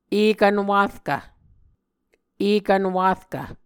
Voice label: "ekvn warkv", muscogee

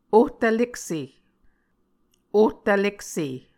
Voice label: "ohtvlekse", Muscogee

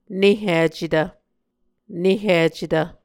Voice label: "nehicetv", Muscogee

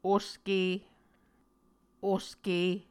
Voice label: "oske", Muscogee

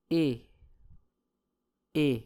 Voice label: "e", muscogee

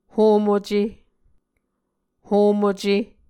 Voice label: "homuce", Muscogee

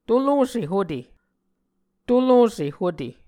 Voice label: "tolose hute", Muscogee

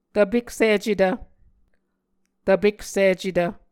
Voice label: "tvpeksicetv", muscogee

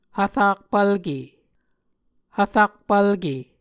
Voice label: "hvrakpvlke", Muscogee

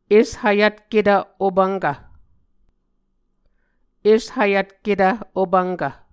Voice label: "eshvyvtketv opvnkv", Muscogee

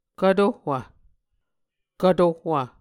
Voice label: "kv tohwv", Muscogee

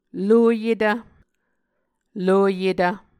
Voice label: "lueyetv", Muscogee

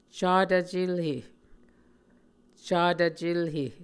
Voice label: "catv-celhe", muscogee

